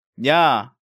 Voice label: "Nya", Korean

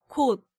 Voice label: "got", Korean

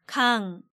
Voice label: "Gang", Korean

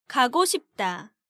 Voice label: "gagosipda", Korean

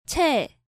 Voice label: "chae", Korean

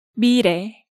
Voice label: "Mirae", Hangeul sounds; DigitalSoju

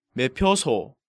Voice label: "Maepyoso", Korean

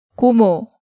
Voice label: "Gomo", Korean

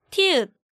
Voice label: "Tieut", Korean

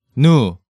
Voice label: "Nu", Hangeul sounds; DigitalSoju

